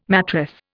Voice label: "mattress", Indian